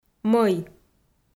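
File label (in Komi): "мӧй", Komi, female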